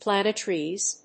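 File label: "plane trees", English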